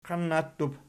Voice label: "qiannattub", Archi

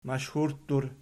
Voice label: "mashhurttur", Archi

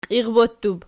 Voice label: "qiirbottub", Archi

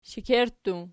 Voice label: "shekerttu", Archi

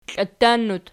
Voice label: "klatannut", Archi

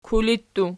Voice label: "kuli", archi

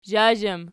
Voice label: "zhazham", Archi

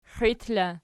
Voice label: xiit in, archi

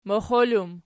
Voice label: "moxol", Archi

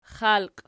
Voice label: xalqi nom, archi

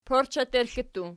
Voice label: "porcheterxli", Archi

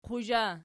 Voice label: "quzha", Archi

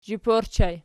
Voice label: "zhip", Archi

